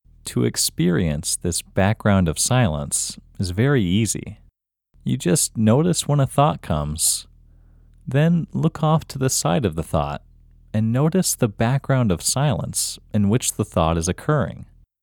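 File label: Male, India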